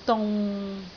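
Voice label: "dung6", Cantonese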